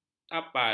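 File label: "kapal", Malay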